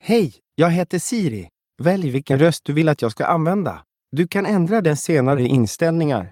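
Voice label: Swedish, Sweden; iOS Sounds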